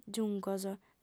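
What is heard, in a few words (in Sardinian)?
"giuncos", female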